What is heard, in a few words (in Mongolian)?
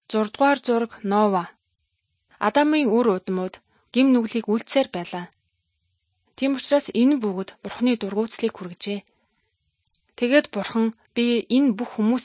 good news mongolian